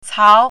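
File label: "cao2", Mandarin Chinese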